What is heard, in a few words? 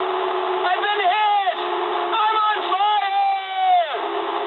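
English on fire mood med; War Thunder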